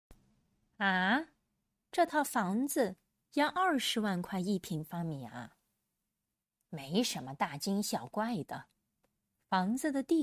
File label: denoised; Chinese Idiom大dà惊jīng小xiǎo怪guài